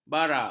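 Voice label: "bara", Malay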